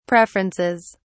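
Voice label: "preferences", English, British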